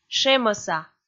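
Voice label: "шемӧса", Komi, female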